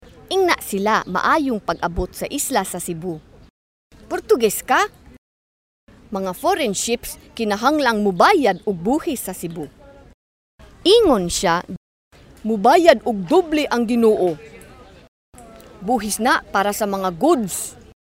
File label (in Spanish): Audio Lengua de Cebú